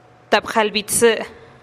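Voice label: "tap jalih bi tsï", Teotitlán del Valle Zapotec; noventa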